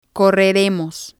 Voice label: "correremos", spanish